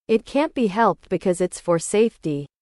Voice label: english

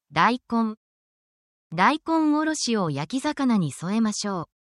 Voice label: japanese talking about vegetables and fruits

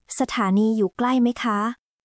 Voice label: Thai, basic thai phrases

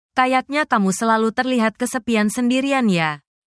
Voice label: indonesian phrases

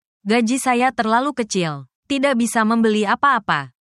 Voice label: indonesia, indonesian negative phrases

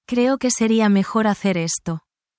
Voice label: spanish, spanish phrases

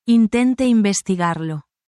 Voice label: spanish, spanish phrases

